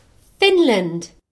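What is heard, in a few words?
"finland", British English; gcompris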